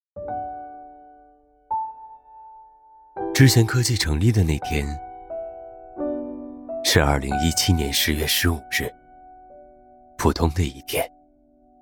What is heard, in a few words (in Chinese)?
宣传片讲述感; 素知闲科技